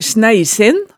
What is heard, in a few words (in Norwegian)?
"snæeisen", hemsedalsmaalet